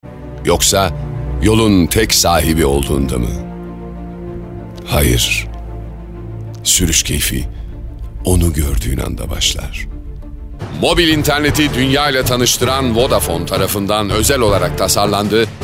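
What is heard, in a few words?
Voice Over Turkish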